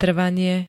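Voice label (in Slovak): "trvanie", Slovak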